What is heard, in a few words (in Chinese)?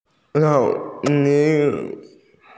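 "cao3 mei2" Chinese, female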